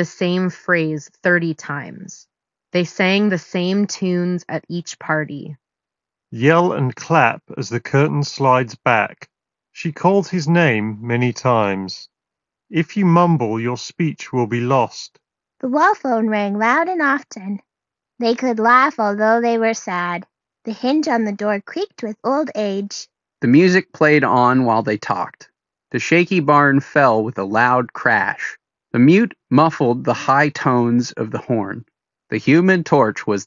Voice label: Ideal microphone sample; Sony WH-ULT900N ULT WEAR

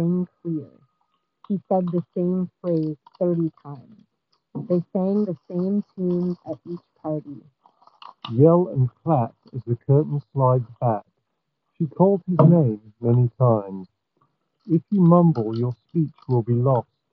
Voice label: Skullcandy Grind Office microphone sample

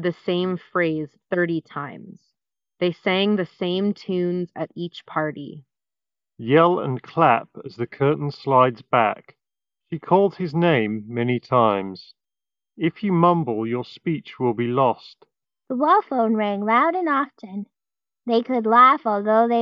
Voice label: 1More Comfobuds Mini Ideal microphone sample

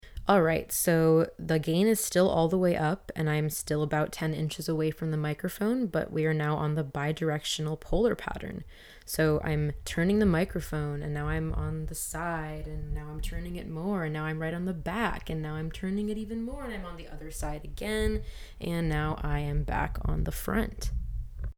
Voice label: Hyper X Quadcast S Bidirectional

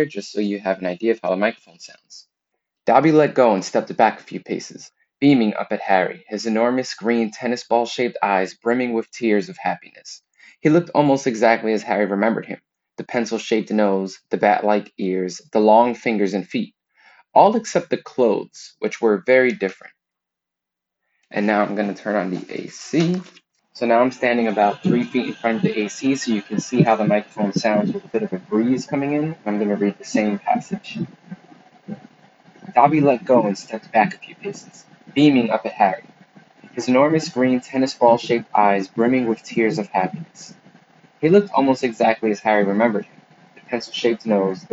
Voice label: Anker Soundcore Spirit Dot 2 mic demo

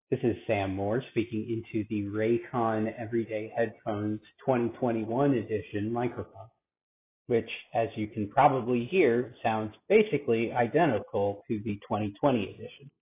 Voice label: mic sample; Raycon Everyday Headphones

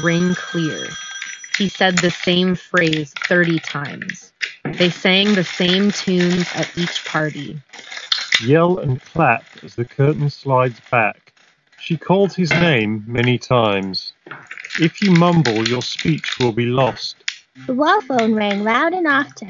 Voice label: Skullcandy Dime 2 Office microphone sample

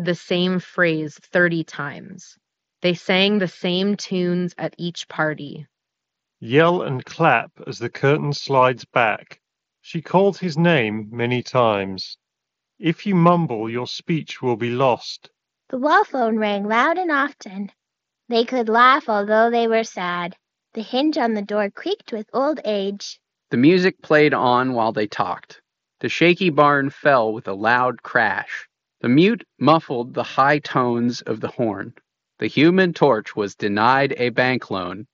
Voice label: Razer Barracuda Ideal microphone sample

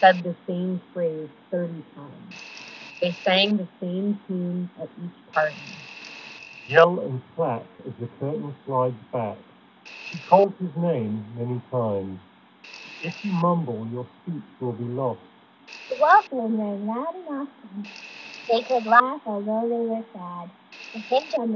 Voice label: LG Tone Free Tone T90Q Wind microphone sample

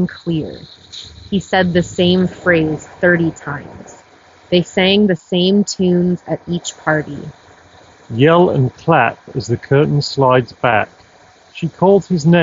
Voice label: Street microphone sample; GN Jabra Elite 45H

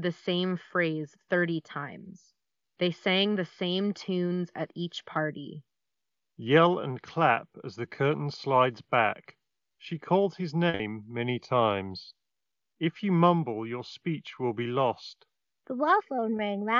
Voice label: Ideal microphone sample; Technics EAH AZ40

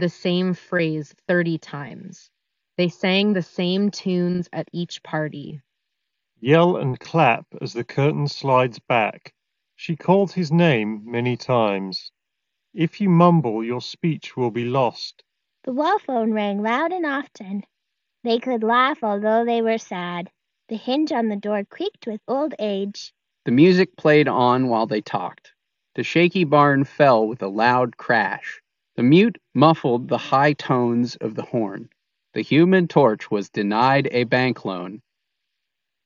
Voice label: Ideal microphone sample; Tozo NC2

